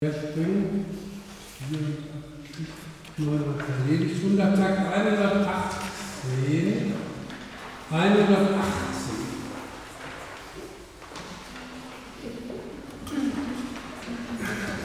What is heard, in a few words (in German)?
Ansagen; Gott in uns